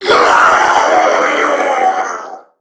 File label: Death Crewmen, en; Warframe; Corpus Crewmen; Crewman B; Dialog Barks Battle